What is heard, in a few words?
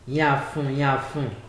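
"yanfu yanfu", Nigerian Pidgin, male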